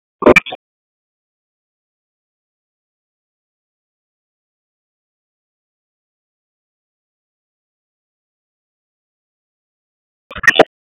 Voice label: Police North District Tac 1, p25; Raleigh; wake